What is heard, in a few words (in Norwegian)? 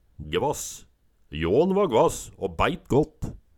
gvass, Numedalsmål